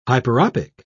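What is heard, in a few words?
"hyperopic", British English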